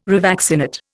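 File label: "revaccinate", Indian English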